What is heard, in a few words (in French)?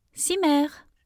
"cimer", French; thank you